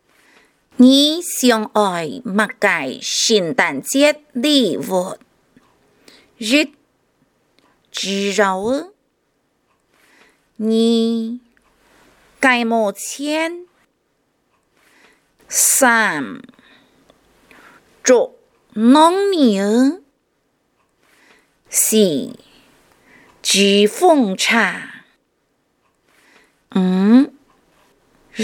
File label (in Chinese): 客 語詞 海陸; 聖誕節海報